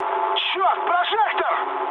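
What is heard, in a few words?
searchlite, mood high, Russian; War Thunder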